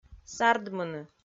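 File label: "сардмыны", Komi, female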